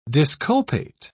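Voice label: "disculpate", British English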